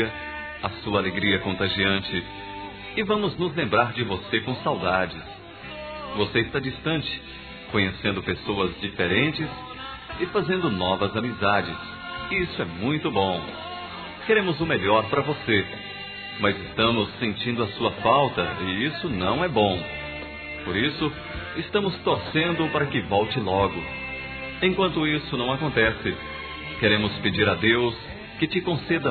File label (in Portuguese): ANO NOVO E NATAL NEUTRO, masc; Telemensagens; Misto